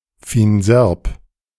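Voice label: "Fines Herbes", German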